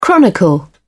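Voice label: "chronicle", British English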